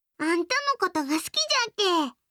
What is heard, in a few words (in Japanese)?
好きです 岡山; 亜美